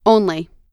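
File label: "only", American English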